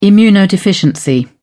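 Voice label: "immunodeficiency", British English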